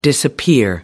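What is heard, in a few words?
"disappear", English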